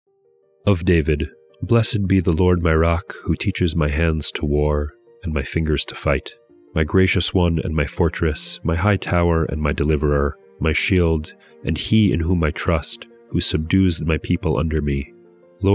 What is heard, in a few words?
poetry and prayer psalm english